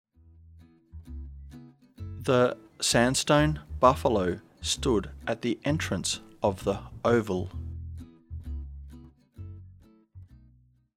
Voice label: slow, diphthong əʊ as in home